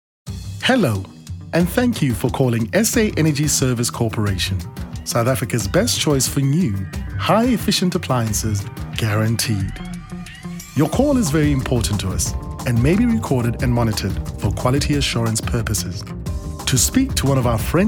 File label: IVR PBX